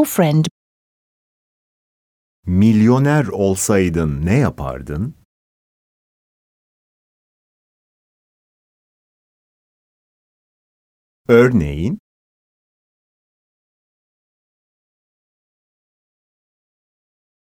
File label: Speaking, Turkish